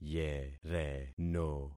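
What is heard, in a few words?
"yereno", concatenated syllables; Affixing experiment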